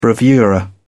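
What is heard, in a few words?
"bravura", British English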